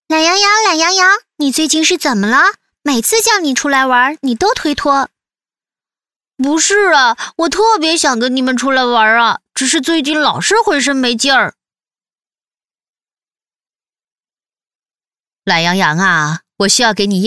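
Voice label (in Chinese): 女S112 国语 女声 角色 童声角色 素人; 美羊羊、沸羊羊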